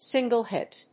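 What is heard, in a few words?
"single hit", English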